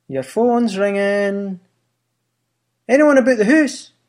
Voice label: Fun Scottish Accent